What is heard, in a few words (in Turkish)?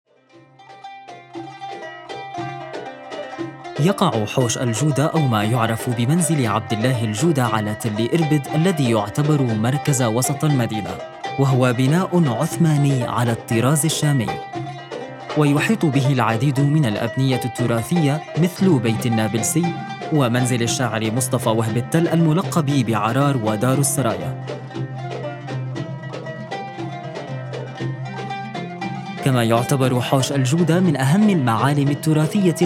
Arapca Erkek Klasik Arapca, seslendirme; erkek sesler